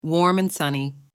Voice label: female Warm and sunny